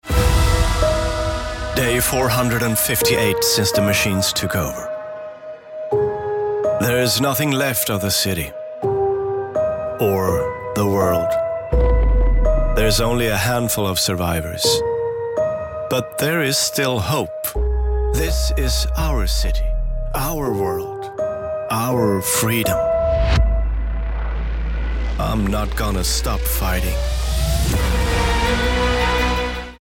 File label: swedish voice movie trailer